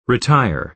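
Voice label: "retire", American English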